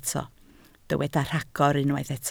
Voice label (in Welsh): "rhagor", Welsh